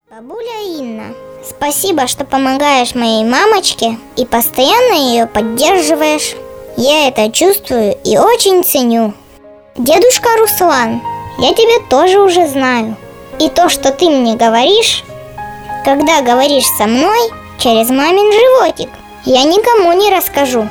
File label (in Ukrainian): rosijskoyu; avtorska pisnya